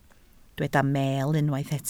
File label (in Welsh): "meel", Welsh